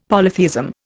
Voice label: "polytheism", Indian; Dictionary

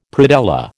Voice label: "predella", American

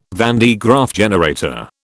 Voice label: "van de Graaff generator", British

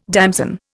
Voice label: "damson", Indian

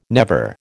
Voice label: "never", American

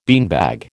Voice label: "beanbag", American

